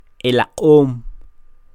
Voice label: "elaq'om", Quiché; ladrón; thief; INALI; UTexas; CHQETA